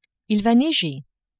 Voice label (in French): "il va neiger", French